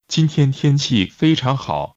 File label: Mandarin Conversation